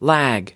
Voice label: "lag", us

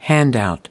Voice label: "handout", us

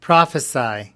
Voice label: "prophesy", us

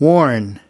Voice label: "warn", us

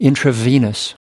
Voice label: "intravenous", us

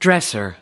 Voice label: "dresser", US

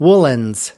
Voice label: "woolens", us

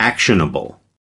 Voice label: "actionable", us

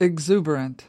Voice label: "exuberant", us

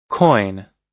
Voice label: "coin", us

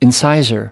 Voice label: "incisor", us

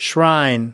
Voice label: "shrine", us

